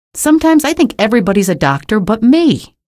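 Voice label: citizen remarks, female; source engine; episodic; episode 1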